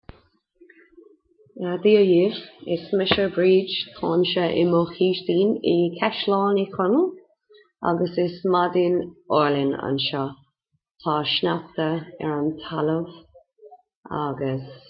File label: welcome in irish